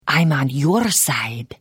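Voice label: Follow, Khajiit female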